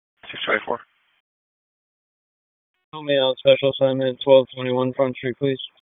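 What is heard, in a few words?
Police District Dispatch, p25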